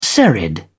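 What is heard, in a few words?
"serried", British English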